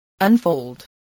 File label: "unfold", English spelling voice